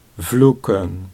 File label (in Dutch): "vloeken", Dutch